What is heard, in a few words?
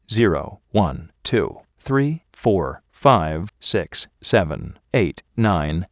digits 16khz; PIC32; Vref DAC